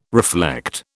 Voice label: "reflect", British

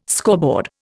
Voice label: "scoreboard", Indian

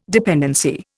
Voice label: "dependency", Indian

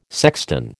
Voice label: "sexton", American

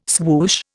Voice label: "swoosh", Indian

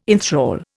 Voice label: "inthrall", Indian

